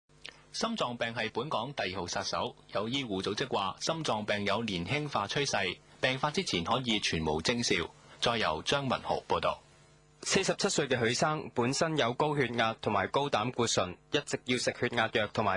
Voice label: Radio